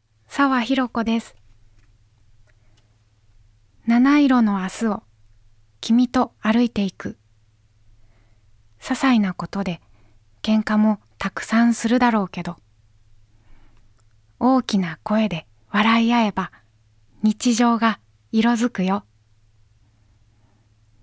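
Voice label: Voice Sample Narration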